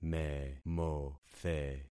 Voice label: "memothe", concat syllables; Affixing experiment